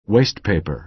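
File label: "waste paper", American English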